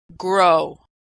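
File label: "grow", American English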